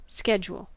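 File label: "schedule", American English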